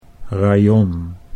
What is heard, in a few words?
"rayon", Dutch